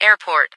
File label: Airport, ATIS